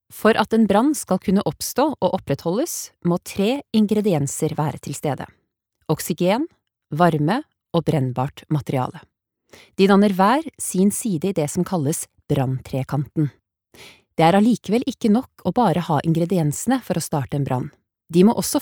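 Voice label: Norwegian e-learning; kvinne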